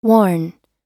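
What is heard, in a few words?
"warn", English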